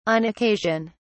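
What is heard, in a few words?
"on occasion", English, British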